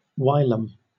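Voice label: "whilom" English, British; pronunciations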